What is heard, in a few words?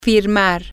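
to Sign, Spanish, female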